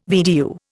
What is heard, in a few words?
"VDU", Indian